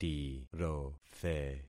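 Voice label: "tirothe", concatenated syllables; Affixing experiment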